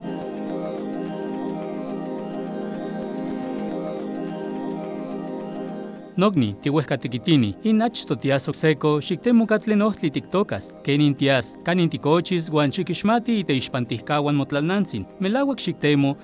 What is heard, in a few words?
NAHUATL